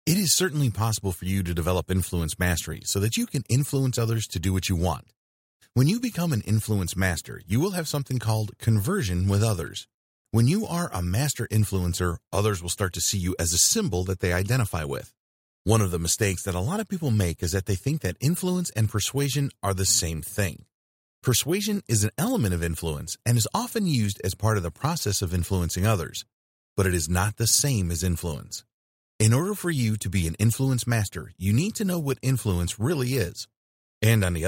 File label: Sales Video Voice Over